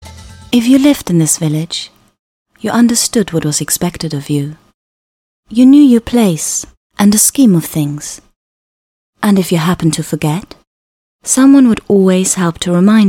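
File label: German, Accented English; GoLocalise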